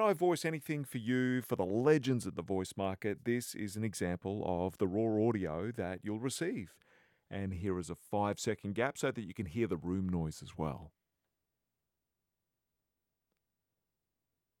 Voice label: Studio Sound Check